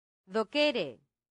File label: "docere", latin; santillana